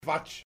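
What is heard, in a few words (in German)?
"Quatsch", German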